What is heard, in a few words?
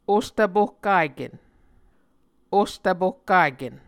"ostvpohkaken", Muscogee